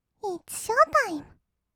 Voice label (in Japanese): イッツショータイム, kawaii voice; 音ゲー